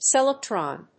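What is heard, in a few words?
"s electron", English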